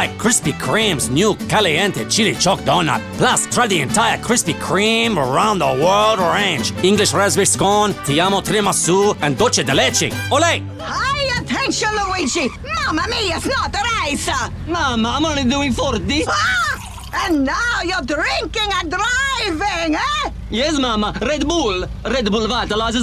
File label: character